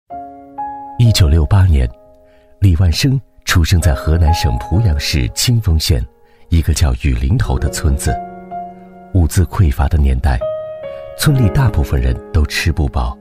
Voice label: 男 人物讲述 （娓娓道来）

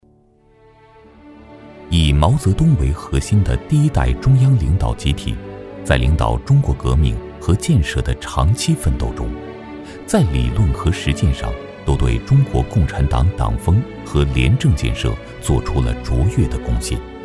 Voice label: 男 传统纪录片

